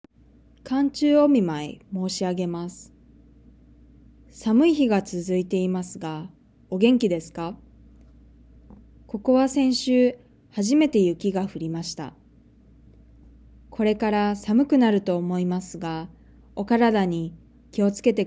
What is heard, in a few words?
Reading natural; OJAD